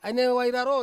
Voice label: "anewai raro", Ansus; intestines

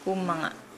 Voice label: "arithmetic", Nukuoro

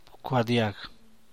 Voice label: "bcuadiag", San Mateo Macuilxochitl Zapotec; to pay attention, attention; poner atención, atención

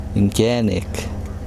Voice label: trail, Kosraean

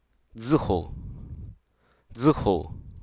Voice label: "consult", hruso

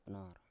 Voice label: "pnor", Biak

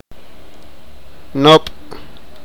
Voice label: sipakapense; sister

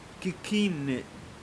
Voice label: "toes", Pingelapese

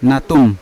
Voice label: "natung" nose, Yawa

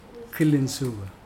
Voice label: tree bark, Mokilese

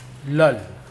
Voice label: deep, Mokilese

